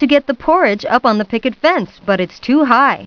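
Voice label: too high story narration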